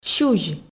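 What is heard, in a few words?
"сюзь", Komi, female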